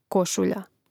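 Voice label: "košulja", Croatian; Mrežnik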